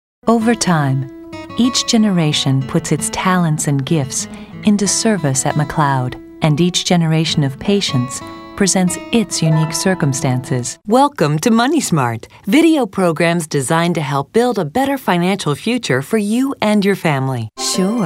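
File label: narration, female voice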